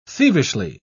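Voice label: "thievishly", British English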